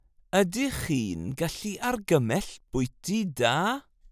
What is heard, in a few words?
Can you recommend a good restaurant formal